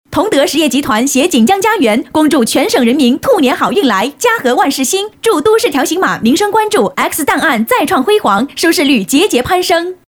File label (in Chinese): 女, 春节贺词, 快速; 同德